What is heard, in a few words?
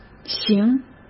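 "型", Mandarin Chinese; hsk; chinese-flashcards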